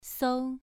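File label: "sou", Mandarin Chinese syllable, second tone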